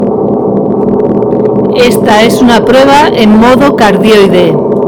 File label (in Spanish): cardioide